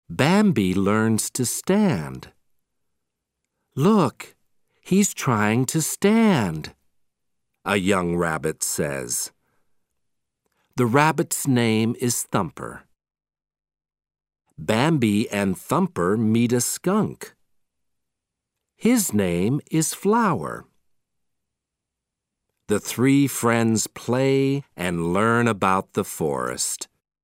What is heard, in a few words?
Audio British English